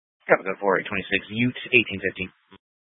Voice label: Police Dispatch; Police 1 Dispatch